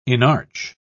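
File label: "inarch", British English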